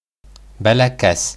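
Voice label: "бәләкәс", Bashkir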